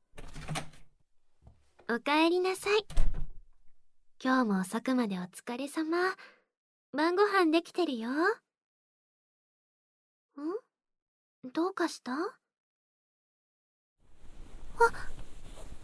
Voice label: 布団にこもって耳舐め絶頂and寝息, ASMR

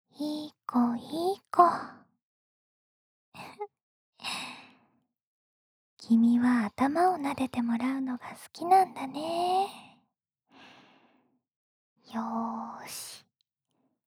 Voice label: 幼稚園児扱い甘々セリフ

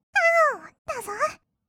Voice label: 「がおー だぞっ」, kawaii voice; 1200 kawaii voice!!; 色々なワンショット

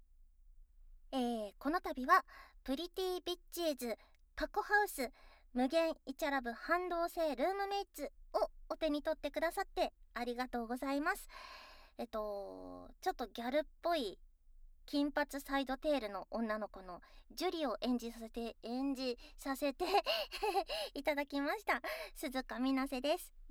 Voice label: フリートーク, ASMR; SEなし

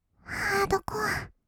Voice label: ハードコア, kawaii voice; 1200 kawaii voice!!; 音楽ジャンル

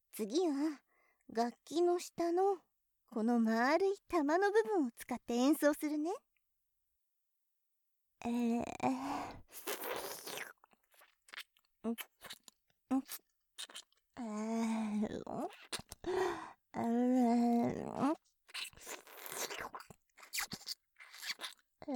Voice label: チンポ舐め, ASMR

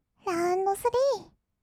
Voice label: "ラウンドスリー", kawaii voice; ASMR; 1200 kawaii voice!!; 音ゲー

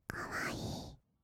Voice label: かわいい, kawaii voice; ASMR; 色々なワンショット

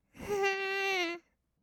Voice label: "んー！", kawaii voice; 1200 kawaii voice!!; 色々なワンショット

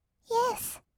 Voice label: "イェス", kawaii voice; 1200 kawaii voice!!; 色々なワンショット

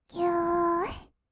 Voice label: 「ぎゅっ」, kawaii voice; 1200 kawaii voice!!; 色々なワンショット

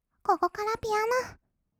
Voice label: "ここからピアノ", kawaii voice; ASMR; 色々なワンショット; 1200 kawaii voice!!

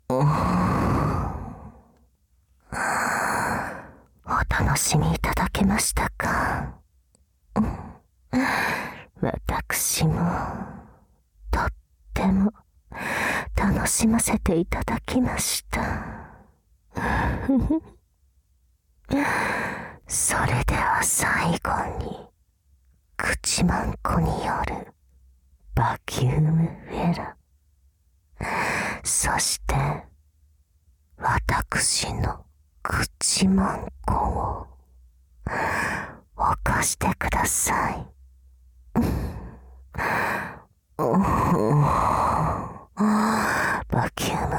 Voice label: 語り掛け; 媚薬で限界を超えたビンカンちんぽで口マンコ犯し

